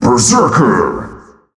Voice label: kills, announcer, male